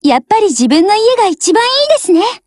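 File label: Japanese, Voice; OPERATION OVER; GF Alarm; RPD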